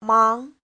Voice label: "máng", Chinese